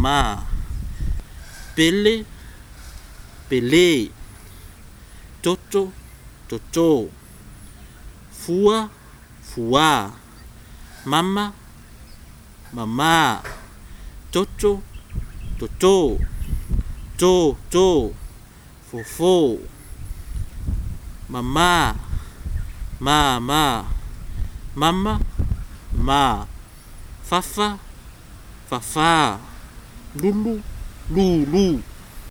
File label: the samoan alphabet, pronounciation, long vowels